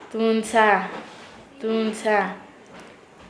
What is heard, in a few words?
"borrachera", Nasa Yuwe; paez